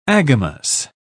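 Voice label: "agamous", British English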